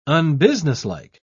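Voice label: "unbusinesslike", British English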